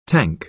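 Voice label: "tank", American English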